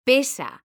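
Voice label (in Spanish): "pesa" Spanish, female; mekanta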